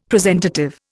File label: "presentative", Indian; Dictionary